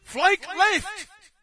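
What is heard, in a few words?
British flank left; player; source engine; dod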